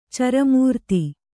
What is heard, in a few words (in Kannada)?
"ಚರಮೂರ್ತಿ", Kannada